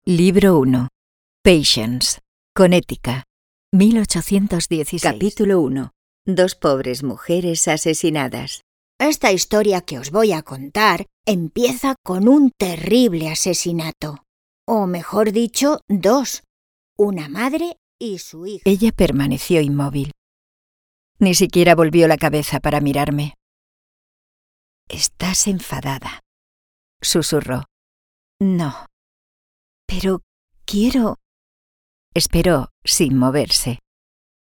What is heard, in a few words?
REEL AUDIOBOOKS